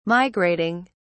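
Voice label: "migrating", British English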